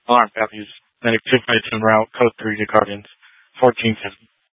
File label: Fire Departments, scanner